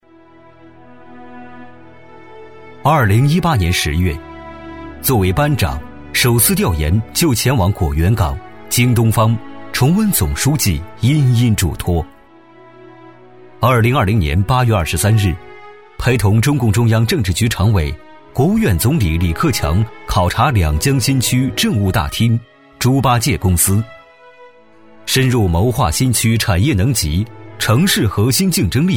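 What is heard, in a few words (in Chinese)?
男【专题片】理性沉稳; 浑厚有力; 大气浑厚; 男D18-【专题片】理性沉稳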